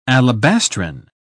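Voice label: "alabastrine", British English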